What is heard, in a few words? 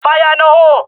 throwing grenade, jungle male; vo; agents; Source Model Packs; Counter Strike Model Pack; CS2